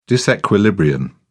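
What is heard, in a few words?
"disequilibrium", British English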